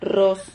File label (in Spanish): "rros", Silabas